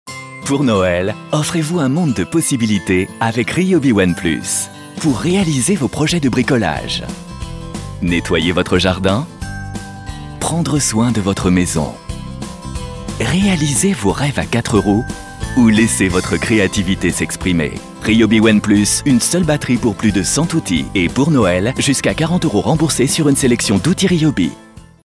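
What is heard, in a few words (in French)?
souriant chaleureux, voix off publicite, homme; Ryobi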